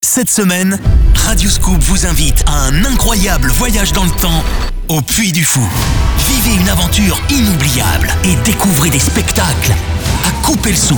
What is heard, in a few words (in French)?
promo cadeaux; puy du fou